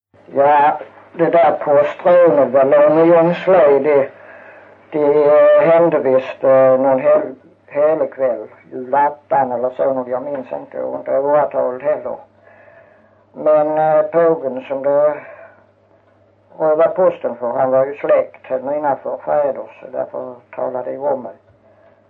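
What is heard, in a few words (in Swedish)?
Ravlunda Skåne, svenska sagor dialekter; Folkminnen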